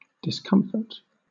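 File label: "discomfort", British English; pronunciations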